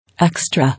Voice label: "Extra", English